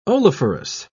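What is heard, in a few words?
"oleiferous", British English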